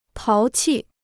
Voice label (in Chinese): "淘气", Chinese